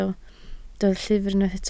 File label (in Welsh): "llyfr", Welsh